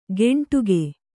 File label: "ಗೆಂಟುಗೆ", Kannada